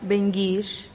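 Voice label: "bengix", Teotitlán del Valle Zapotec; a dandy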